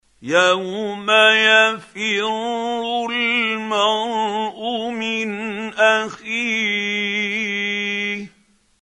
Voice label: quran, Hussary, tafseer; Abasa